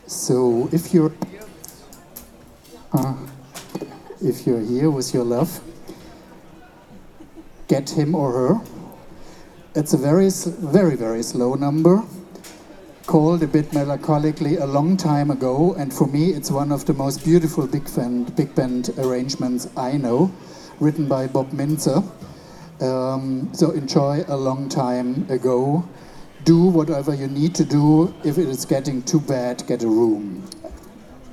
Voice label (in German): Ansage